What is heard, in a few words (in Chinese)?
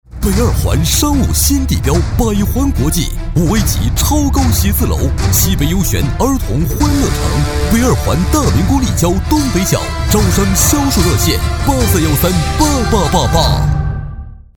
国语 男声 广告-大气厚重 地产 大气浑厚磁性 沉稳 积极向上